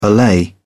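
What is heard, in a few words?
"allay", English, British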